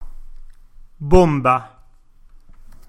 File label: "bomba", Italian